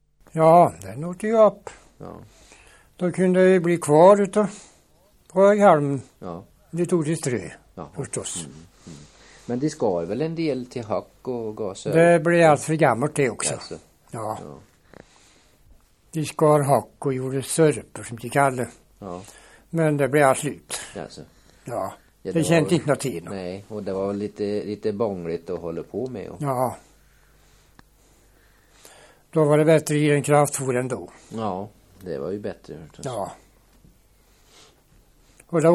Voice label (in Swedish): Väse, dialekter; Svenska sagor; Folkminnen